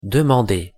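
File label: "demander", French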